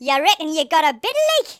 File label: "come on then", Geordie; speech